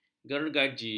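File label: "gergaji", Malay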